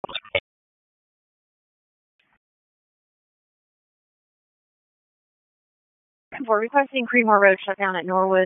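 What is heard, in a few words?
Sheriff's Office Dispatch 1, p25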